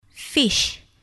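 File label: "fish", English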